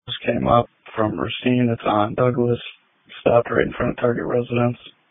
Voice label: Police Tactical Encrypted